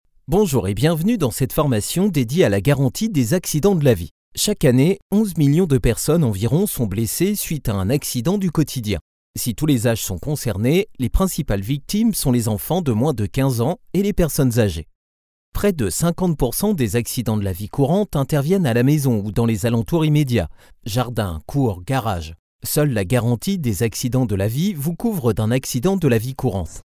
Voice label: french e-learning

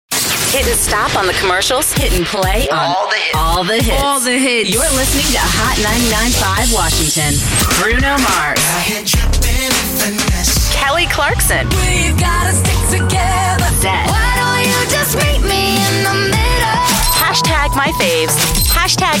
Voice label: english american radio imaging

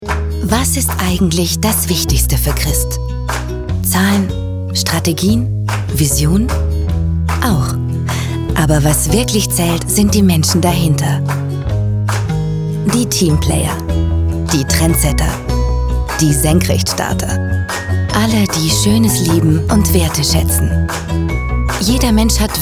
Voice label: german corporate videos; voice actors

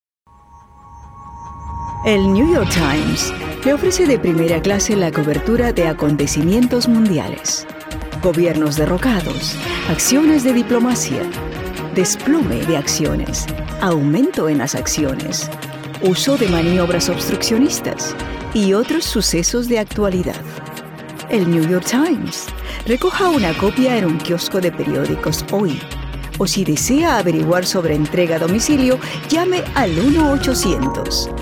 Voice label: spanish peruvian promos

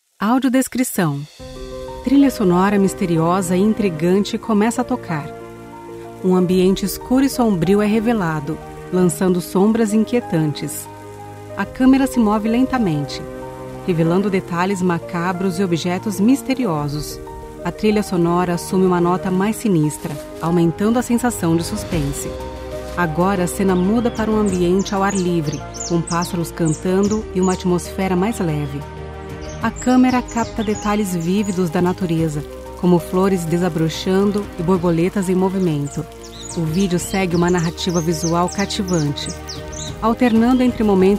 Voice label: portuguese brazilian impersonations; natürliche, vielseitige Stimme und einen neutralen Akzent

